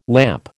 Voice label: "lamp", American